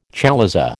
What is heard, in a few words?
"chalaza", American